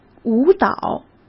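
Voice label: "舞蹈", Mandarin Chinese; hsk; chinese-flashcards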